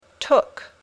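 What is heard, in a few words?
"took", English; take (tomar)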